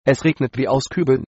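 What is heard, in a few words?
rain, German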